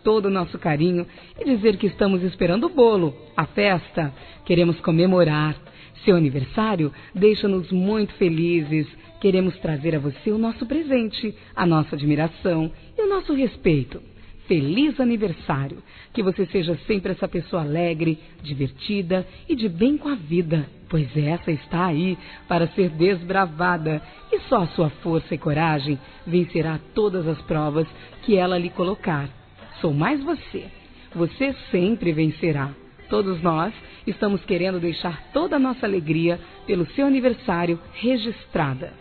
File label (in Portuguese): NEUTRO TURMA F; Aniversários; Aniversários diversos; fem